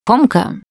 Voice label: melee weapons, russian, Survivor Voice Producer